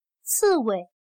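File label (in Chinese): "刺猬" Mandarin Chinese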